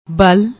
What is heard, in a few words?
"bal", Punjabi; ਬਲ; بل; noun masculine, dialectical usage; ਵਲ਼; ਵੱਟ